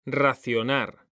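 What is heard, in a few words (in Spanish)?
"racionar", male; mekanta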